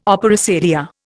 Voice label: "opera seria", Indian

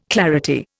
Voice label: "clarity", Indian

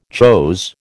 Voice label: "chose", American

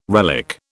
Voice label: "relic", British

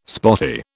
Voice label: "spotty", British

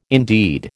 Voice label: "indeed", American

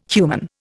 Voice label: "human", Indian

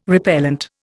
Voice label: "repellent", Indian

